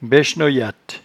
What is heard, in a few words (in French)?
"baichnoyatte", patois jura